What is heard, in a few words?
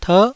"terh9", taiwanese Syllables